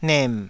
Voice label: "nem3", taiwanese